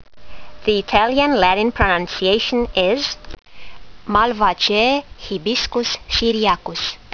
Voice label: latin; Hibiscus syriacus